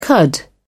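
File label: "cud", British English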